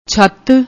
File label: "chhaut", Punjabi